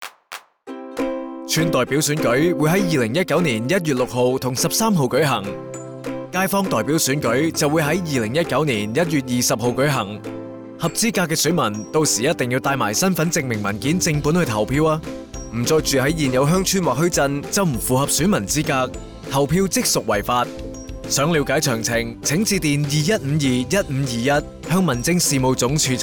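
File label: radio polling day Cantonese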